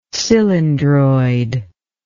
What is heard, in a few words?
"cylindroid", english